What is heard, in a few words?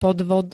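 "podvod", Slovak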